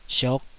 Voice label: "siok5", Taiwanese syllable